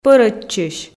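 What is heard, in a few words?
"пӧрӧдчысь", Komi, female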